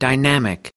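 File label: "dynamic", American English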